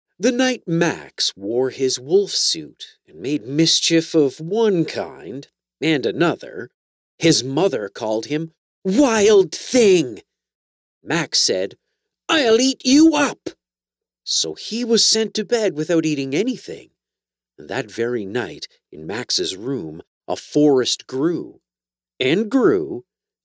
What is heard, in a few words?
Children Fiction